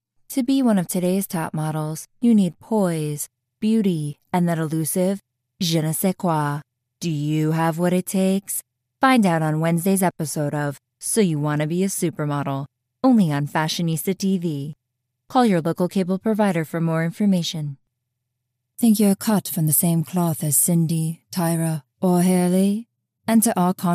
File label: Accent